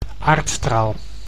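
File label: "aardstraal", Dutch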